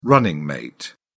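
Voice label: "running mate", British English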